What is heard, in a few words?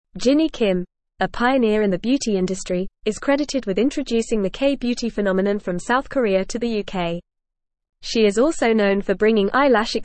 English Newsroom Advanced FAST Reading; Jinny Kim Pioneer of K Beauty in the UK